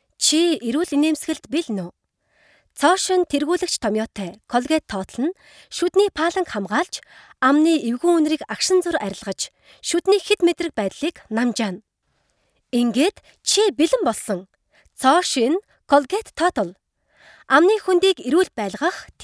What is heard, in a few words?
32 years